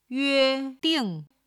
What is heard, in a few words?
"yueding", Chinese